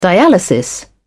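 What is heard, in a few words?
"dialysis", British English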